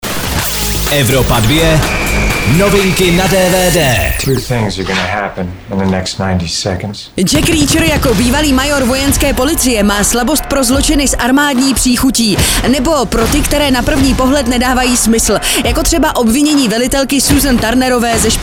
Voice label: dvd news; jack reacher

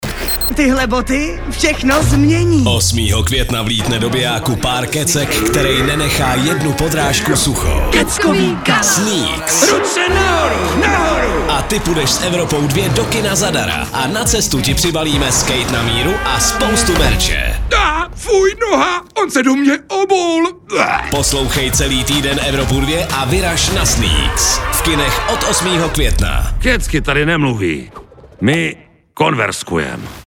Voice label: promo soutez; SNEAKS: Šlápni do toho a vyhraj parádní ceny!; Soutěžte na Evropě 2

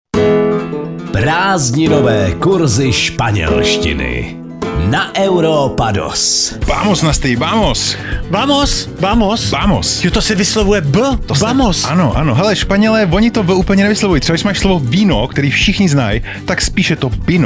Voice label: spanelstina spanelstina

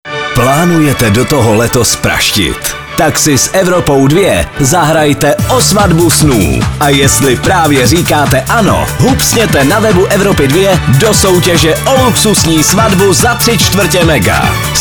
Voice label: promo svatba snu webova soutez

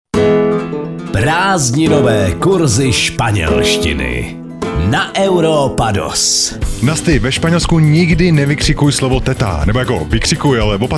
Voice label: spanelstina spanelstina

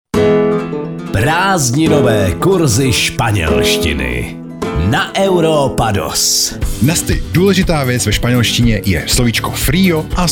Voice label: spanelstina spanelstina

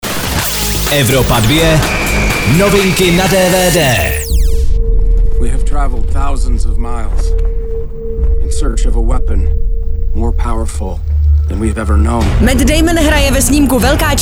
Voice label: dvd news; velka cinska zed

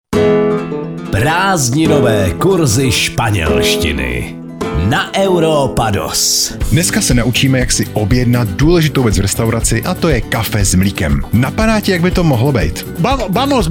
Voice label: spanelstina spanelstina

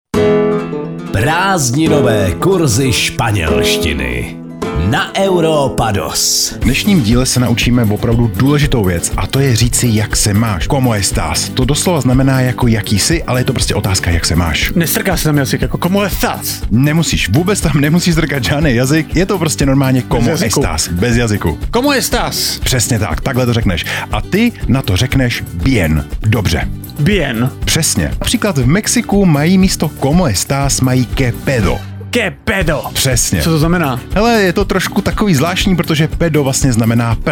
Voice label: spanelstina spanelstina

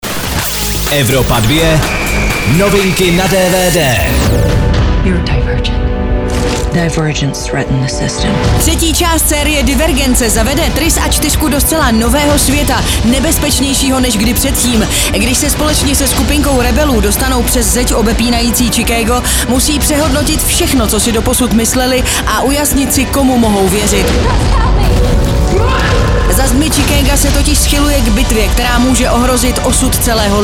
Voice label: DVD NEWS; Série Divergence Aliance

